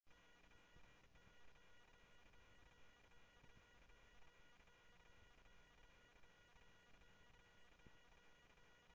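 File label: Simulcast police department, scanner audio trunk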